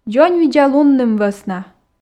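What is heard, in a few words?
"дзоньвидзалунным вӧсна", Komi, female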